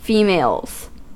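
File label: "females", US English; pronunciations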